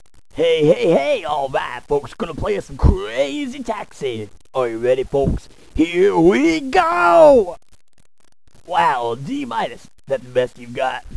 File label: announcer